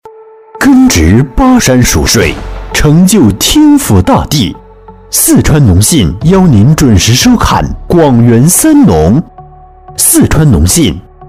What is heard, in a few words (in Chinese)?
男 节目导视广告 激情大气; 浑厚大气; 大气浑厚; 农信银行